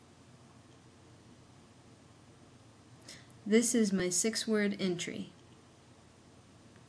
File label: female; Houston Texas